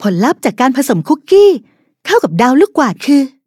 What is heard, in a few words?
level up, th; Cookie Run